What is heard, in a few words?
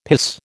"piss", American